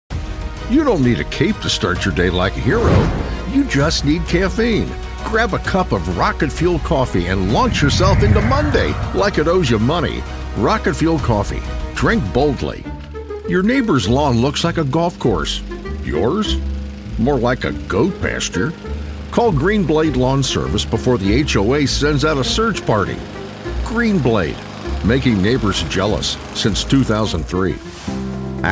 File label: Commercial